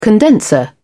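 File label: "condenser", British English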